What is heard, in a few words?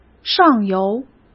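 "上游", Mandarin Chinese; chinese flashcards; hsk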